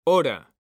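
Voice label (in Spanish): "hora", Spanish, male; mekanta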